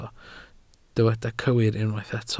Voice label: "cywir", Welsh

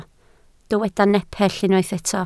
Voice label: "nepell", Welsh

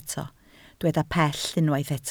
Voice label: "pell", Welsh

